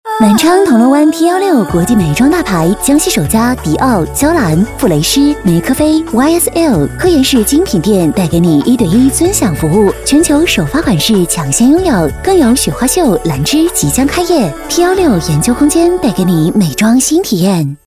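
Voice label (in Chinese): 广告轻松愉快; 广告南昌铜锣湾轻松愉快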